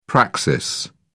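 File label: "praxis", English, British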